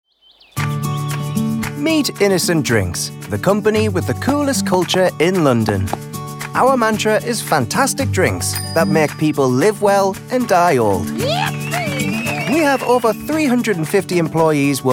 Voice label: corporate